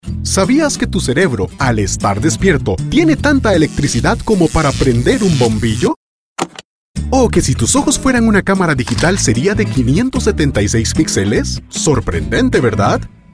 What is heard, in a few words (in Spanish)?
radio voz